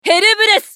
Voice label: ウォーロック女 ヘルブレス

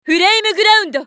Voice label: ウォーロック女･フレイムグランド

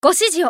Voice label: ソルジャー女･選択

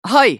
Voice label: スカウト男 選択

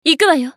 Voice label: ウォーロック女 移動, 女

